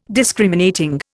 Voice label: "Discriminating", Indian

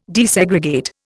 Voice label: "desegregate", Indian

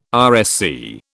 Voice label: "RSC", British

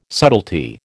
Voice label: "subtlety", American

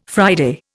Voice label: "Friday", Indian

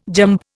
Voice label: "jamb", Indian

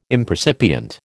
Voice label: "impercipient", American

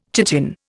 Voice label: "chitin", Indian

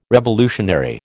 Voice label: "revolutionary", American

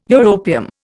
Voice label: "europium", Indian

